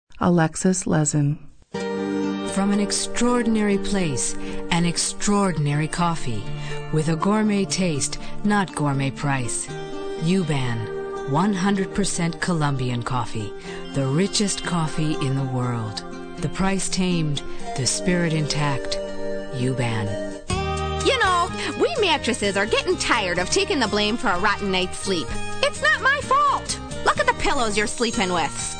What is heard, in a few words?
female voice